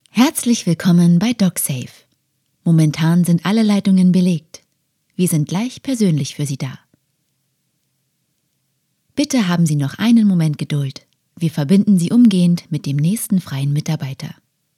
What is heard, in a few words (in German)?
Ansage - Warteschlange, Sprecherin; Aktivierend werblich, vertrauensvoll seriös oder tiefenentspannt